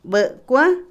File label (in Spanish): Otomí, mujer; camote, patata; INALI